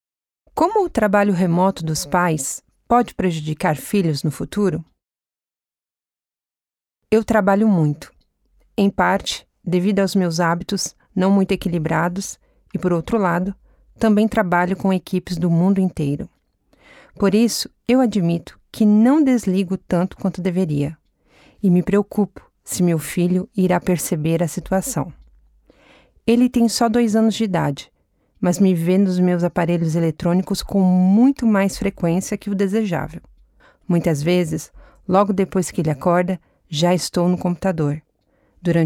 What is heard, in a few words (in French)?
Portugais